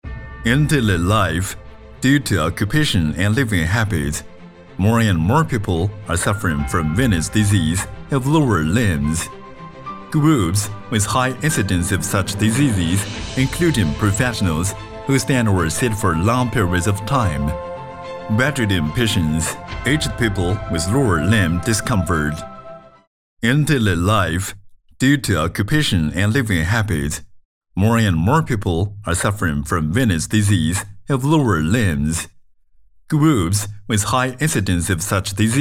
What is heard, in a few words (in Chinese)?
宣传片 浑厚稳重, 男 英文